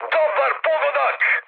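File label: Serbian bomb success mood high, speech; War Thunder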